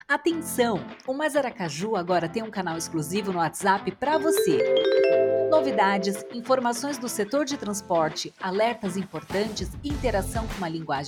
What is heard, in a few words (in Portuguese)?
Spot, locucao publicitaria; Canal Mais Aracaju whatsapp